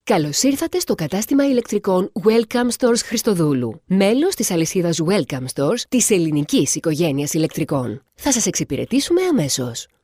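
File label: Greek IVR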